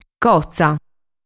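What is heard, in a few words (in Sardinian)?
"Cotza", Sardinian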